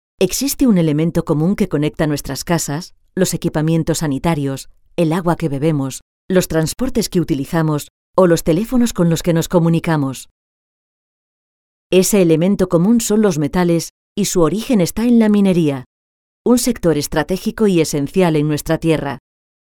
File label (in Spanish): Femenino